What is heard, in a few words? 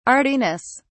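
"artiness", English, British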